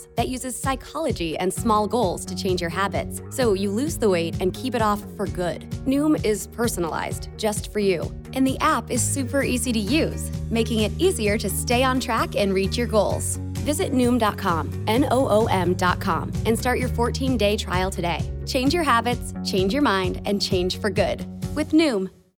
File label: All Diet Plans Radio Mix; Noom